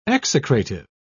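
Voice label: "execrative", British English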